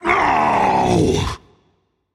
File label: ouch rage; chaac